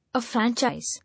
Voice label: "Affranchise", English